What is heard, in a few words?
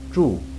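"zhu", Chinese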